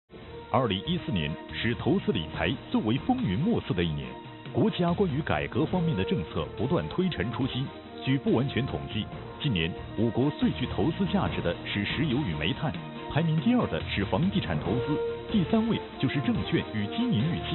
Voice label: 男 配音, 浑厚磁性; 国语男声配音; 广东卫视配音合作

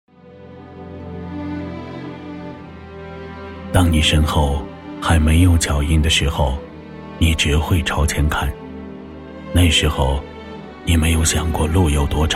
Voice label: 男 广告, 国语男声配音; 陆风汽车

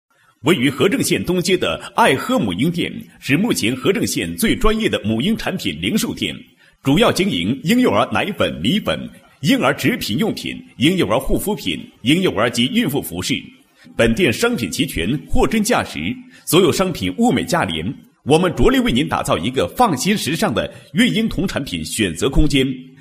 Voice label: 广告 母婴店, 国语男声配音, 高音

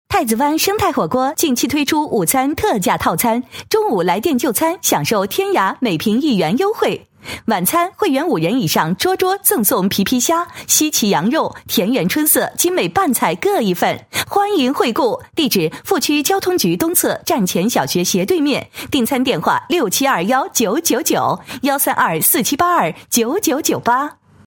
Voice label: 女 广告, 国语女声配音 全能配音; 太子湾生太火锅

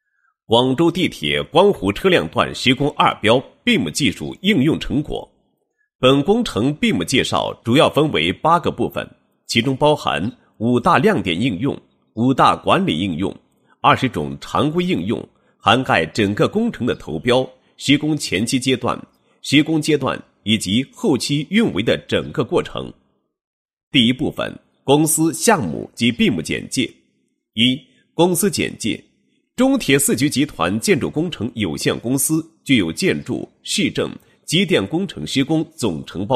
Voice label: 男 项目解说; BIM技术应用成果解说

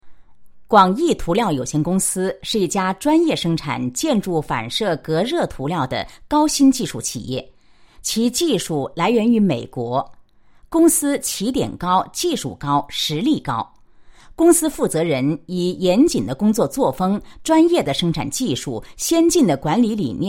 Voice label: 国语女声配音 大气 环保材料; 大气专题; 广毅环保材料音

